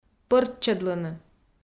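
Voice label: "пӧрччӧдлыны", Komi, female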